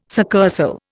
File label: "succursal", Indian